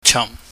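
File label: "cham", Cantonese, low falling tone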